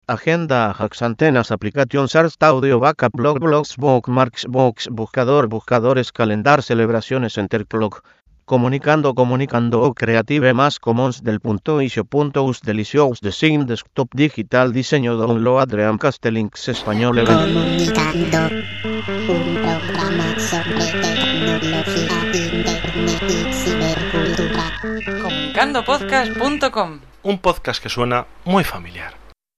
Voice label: promo